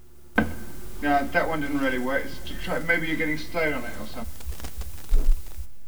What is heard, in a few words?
Studio Chatter; Outro